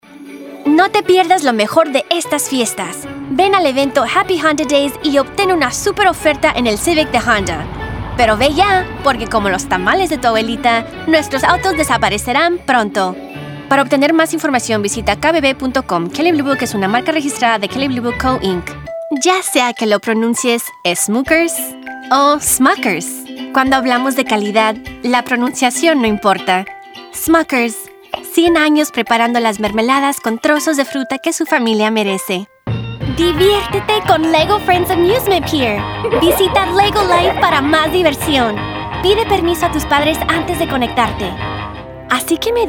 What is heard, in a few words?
Spanish Commercial